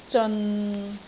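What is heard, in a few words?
"zeon6", Cantonese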